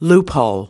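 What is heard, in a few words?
"loophole", US English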